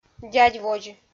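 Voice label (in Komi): "дядьводз", Komi, female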